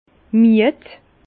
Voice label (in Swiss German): "mied", Bas Rhin; vin; fatigué